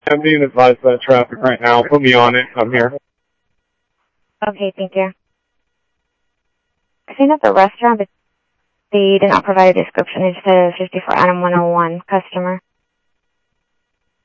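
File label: Lakes Sheriff Lake Patrol, scanner audio trunk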